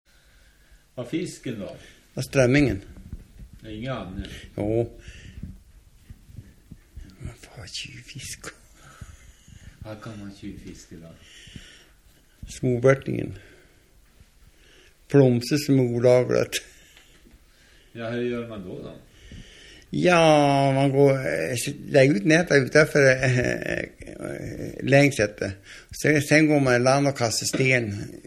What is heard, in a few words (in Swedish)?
Ullånger, dialekter; Folkminnen